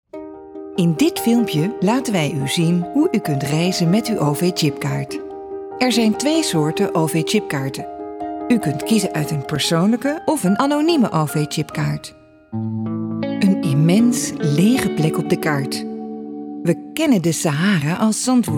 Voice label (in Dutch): narratief